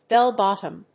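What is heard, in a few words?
"bell-bottom", English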